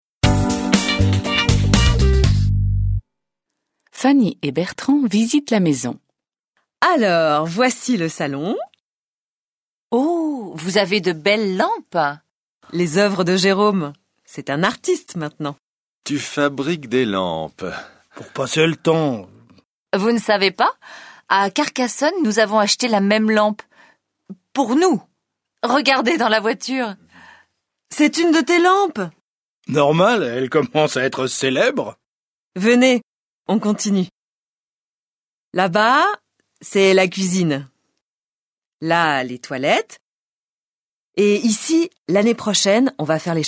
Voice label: French